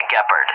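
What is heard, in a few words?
"Gepard", English, USA; AirDefence; DCS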